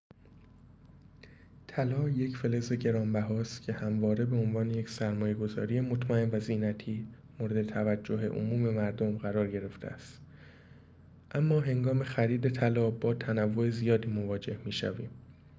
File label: voice msg